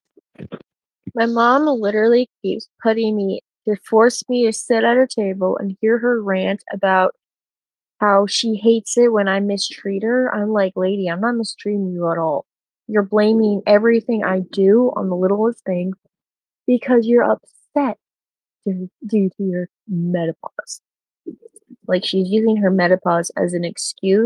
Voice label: voice message